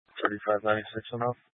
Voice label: Police Dispatch; Police 1 Dispatch

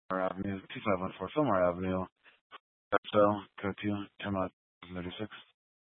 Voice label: Fire Department Dispatch, scanner

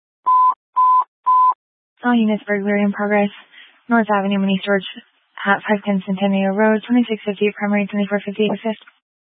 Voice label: Mesa County Sheriff Dispatch; scanner

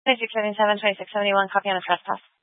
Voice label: Sheriff Dispatch; Mesa County

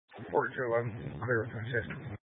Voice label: Mesa County Sheriff Dispatch

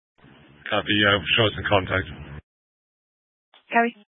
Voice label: Fire Dispatch, scanner

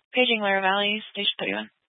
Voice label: Grand Junction Fire Department Dispatch

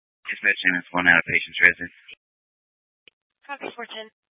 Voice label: Fire Dispatch, Scanner audio recording

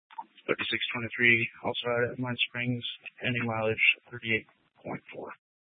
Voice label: Police 1 Dispatch

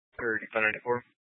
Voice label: Police Department Dispatch; Grand Junction

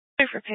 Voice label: Fire Department Dispatch, scanner; Grand Junction

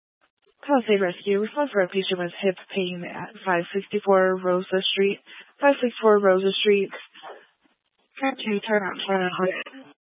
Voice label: Fire Dispatch

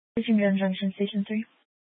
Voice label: Fire Department Dispatch; Grand Junction

